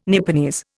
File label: "Nipponese", Indian